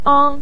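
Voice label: "ang" neutral tone, Mandarin Chinese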